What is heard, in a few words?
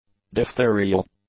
"diphtherial", english; adjectives